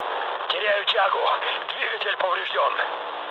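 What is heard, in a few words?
Russian damaged engine mood low, speech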